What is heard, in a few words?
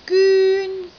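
"gyun1", Cantonese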